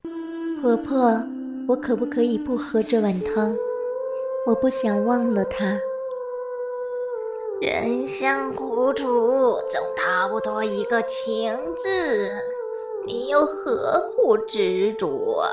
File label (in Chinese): 冷种声线